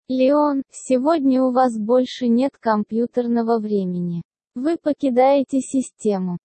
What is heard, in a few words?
speech sample ru